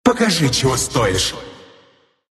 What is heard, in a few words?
crown attack, ru